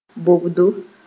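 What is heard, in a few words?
"bobdo", Mooré; horse harness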